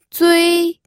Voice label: "zui1", pinyin

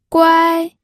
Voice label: "guai1", pinyin audio

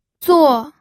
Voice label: "zuo4", pinyin

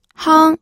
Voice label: "hang1", pinyin audio

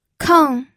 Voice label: "keng4", pinyin

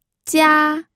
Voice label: "jia1", pinyin audio

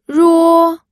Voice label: "ruo1", pinyin

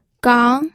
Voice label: "gang2", pinyin audio

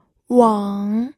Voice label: "weng3", pinyin

